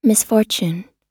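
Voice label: "misfortune", US English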